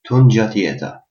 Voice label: hello, Albanian Gheg; Tun-gât-jeta

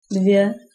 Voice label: two, czech

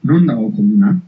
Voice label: "toilet", Basque

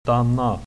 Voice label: stop, swedish

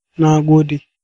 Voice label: thanks, hausa

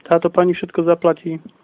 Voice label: pay, slovak

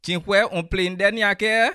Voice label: how much, bassa

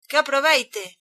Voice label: bon appetit, galician

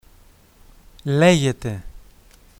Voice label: "hello", Greek; Λέγετε; hello on the telephone

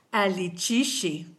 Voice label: good afternoon, kichwa

